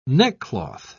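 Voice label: "neckcloth", British English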